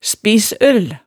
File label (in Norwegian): "spissøl", hemsedalsmaalet